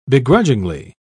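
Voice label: "begrudgingly", en, uk British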